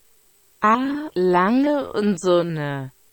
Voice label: A Lange and Sohne German Pronunciation